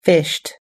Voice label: "fished", US English

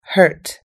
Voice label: "hurt", US English

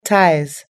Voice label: "ties", US English

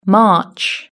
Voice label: "march", british english

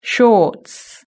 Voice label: "shorts", british english

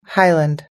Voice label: "highland", us english

